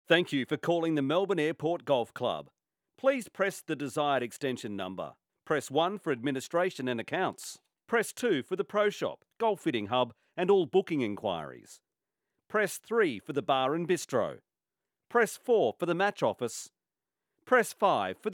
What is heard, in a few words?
airport greeting; Melb